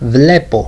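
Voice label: "blepv", Greek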